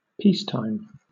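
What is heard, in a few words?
"peacetime" English, British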